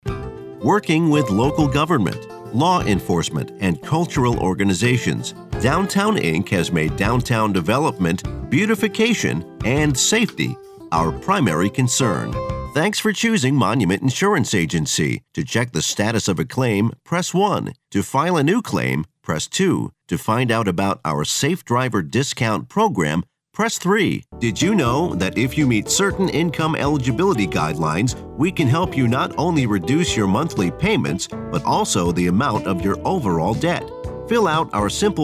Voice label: male